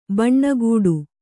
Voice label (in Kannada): "ಭಾನಗಡಿ", Kannada